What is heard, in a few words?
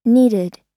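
"needed", American English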